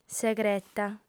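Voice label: "segreta", lingua sarda, female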